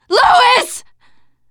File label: grief manager, teengirl; player; survivor; voice